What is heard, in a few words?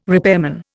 "repairman", Indian